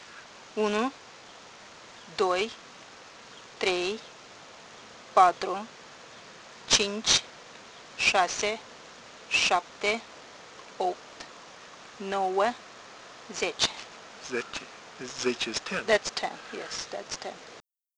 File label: romanian count to 10